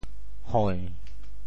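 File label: "hoi~7", Teochew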